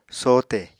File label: "sohte", Apatani